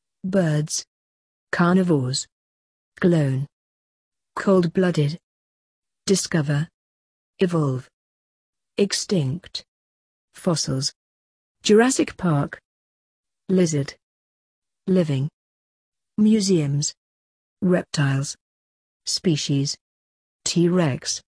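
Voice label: British; dinosaurs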